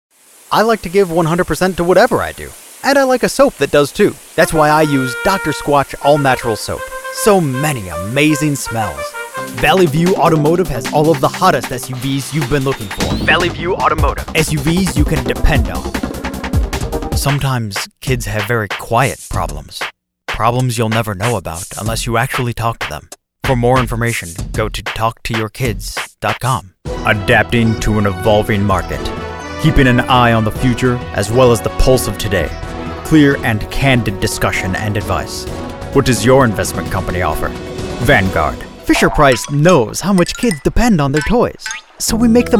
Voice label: COMMERCIAL